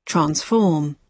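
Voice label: "transform", British English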